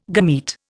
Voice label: "gamete", Indian

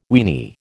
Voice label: "weeny", American

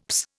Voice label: "psst", Indian; Dictionary

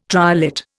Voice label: "triolet", Indian

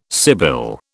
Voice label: "sibyl", British

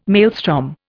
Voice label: "maelstrom", Indian

